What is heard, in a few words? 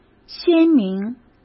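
"鲜明", Mandarin Chinese; chinese-flashcards; hsk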